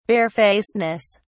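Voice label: "barefacedness", British English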